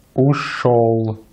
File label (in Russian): "ушёл", Russian